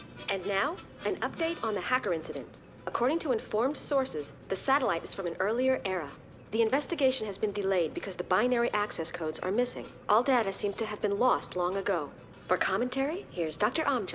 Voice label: news

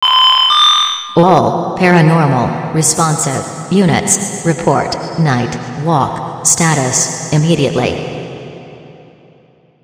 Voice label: announcement; sven; soiz

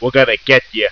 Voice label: gangster taunt

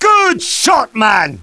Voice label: cheer; tf2demoman

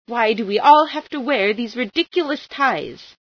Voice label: wear tie, female

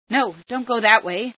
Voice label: dont go there, female

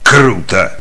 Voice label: Cheer; Kingpin Fargus

